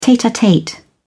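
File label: "tete-a-tete", English, British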